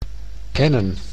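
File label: "kennen", Dutch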